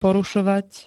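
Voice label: "porušovať", Slovak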